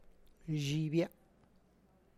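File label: my knee, Tlacochahuaya Zapotec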